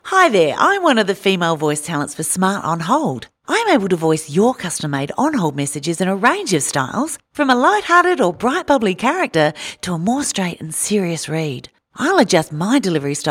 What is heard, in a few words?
FEMALE